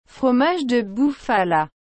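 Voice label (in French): "fromage de bufala", French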